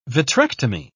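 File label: "vitrectomy", English, British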